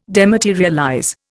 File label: "dematerialize", Indian